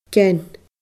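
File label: "gean", Scottish Gaelic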